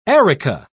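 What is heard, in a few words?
"areca", English, British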